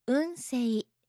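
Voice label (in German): "unsei", japanische Mädchennamen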